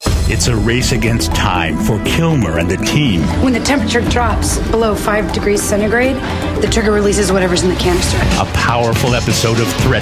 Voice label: male voice, promos